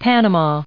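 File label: "Panama", us English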